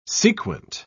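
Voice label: "sequent", British English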